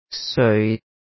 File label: "seoi6", cantonese